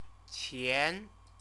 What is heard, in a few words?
"qian", Chinese; CTCFL; University of Oxford